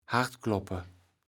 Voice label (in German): "Hartkloppe", Platt